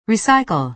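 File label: "recycle", American English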